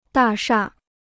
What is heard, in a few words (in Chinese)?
"大厦", Chinese